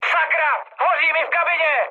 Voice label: on fire, speech cze, mood high; War Thunder